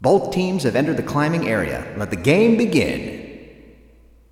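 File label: Wall Start english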